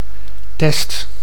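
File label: "test", Dutch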